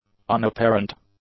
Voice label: "unapparent", English; adjectives